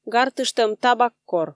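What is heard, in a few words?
"гартыштӧм табак кор", Komi, female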